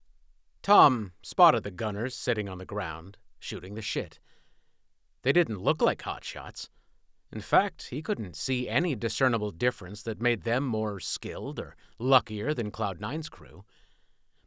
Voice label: Historical Fiction, Third Person, Male, New York and Southern Accents; audiobook narrator